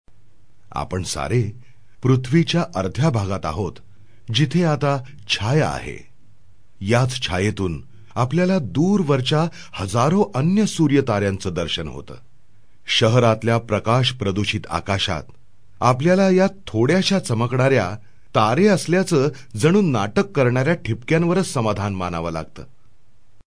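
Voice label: Marathi, General; GoLocalise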